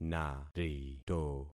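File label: "narito", concatenated syllables; Affixing experiment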